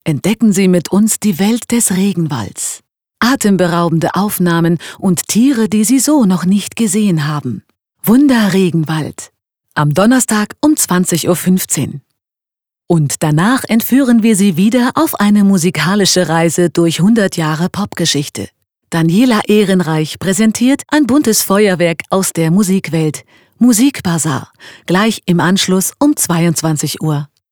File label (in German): Sprache Ankündigung Sendung Regenwald